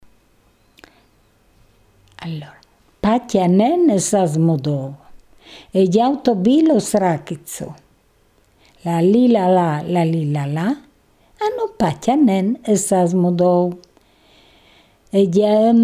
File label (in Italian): CANZONE LETTA